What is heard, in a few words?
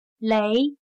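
"雷", Chinese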